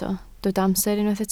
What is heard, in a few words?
"amser", Welsh